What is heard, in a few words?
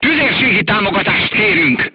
voice message command, crew tanks hungarian; War Thunder